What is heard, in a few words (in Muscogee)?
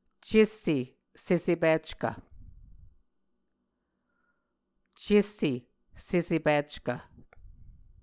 "cesse secepickv", Muscogee